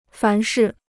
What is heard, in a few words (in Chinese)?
"凡是", Chinese